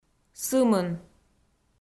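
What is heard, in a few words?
"сымын", Komi, female; audio dict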